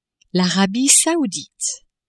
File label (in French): Arabie Saoudite